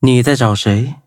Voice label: touch, zh hant

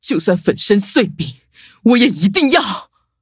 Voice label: defeat, zh-hant; cookie run

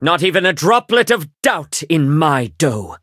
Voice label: battle en; cookie run

